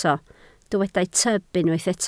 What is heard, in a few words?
"tyb", Welsh